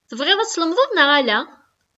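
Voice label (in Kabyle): Tebɣiḍ ad tlemdeḍ neɣ ala?, Kabyle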